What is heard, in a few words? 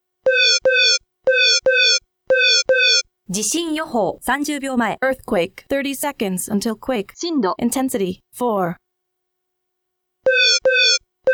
Japanese English yoho